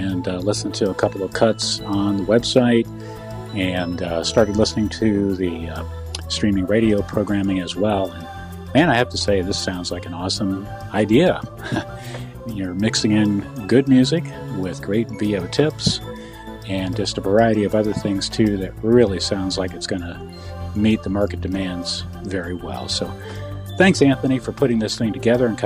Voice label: testimonial montage